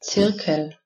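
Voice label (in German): "zirkel", German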